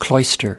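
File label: "cloister", US English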